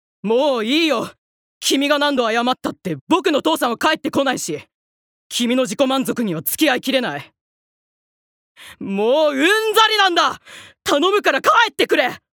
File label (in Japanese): アニメ